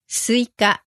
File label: "suika", Japanese